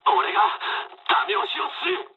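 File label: player killed enemy mood med, speech japanese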